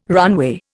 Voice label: "runway", Indian